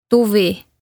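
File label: "Tuvi" Estonian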